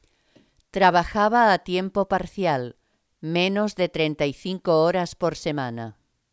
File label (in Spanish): spanish sample